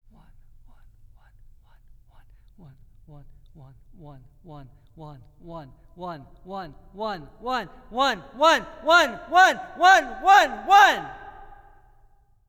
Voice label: Voice, Dynamic Range Mildly Compressed, Test; The Ultimate Headphone Demonstration Disc ( 24Bit192kHz ); Chesky Record